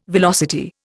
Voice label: "velocity", Indian